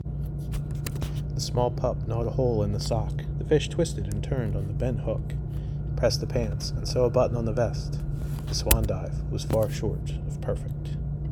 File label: Mic Check, MacBook Pro 16